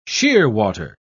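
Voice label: "shearwater", British English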